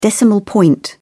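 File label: "decimal point", English, British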